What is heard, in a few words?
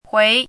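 "hui" second tone, pinyin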